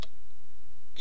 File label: "ch", east cree syllabics